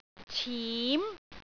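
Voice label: "cim2", Cantonese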